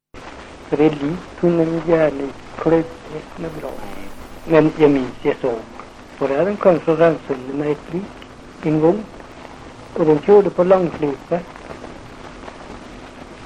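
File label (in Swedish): Hede Härjedalen, dialekter; Folkminnen; Svenska sagor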